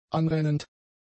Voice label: "anrennend", German; adjectives